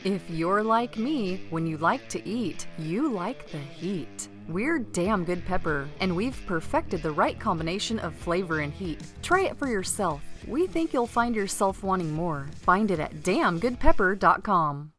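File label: commercial, English US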